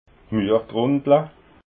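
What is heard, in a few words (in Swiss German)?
"muergrundla", Alsatian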